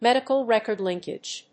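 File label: "Medical Record Linkage", English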